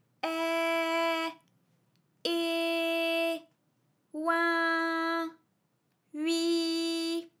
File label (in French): ai eh oin ui, vocal library, FRA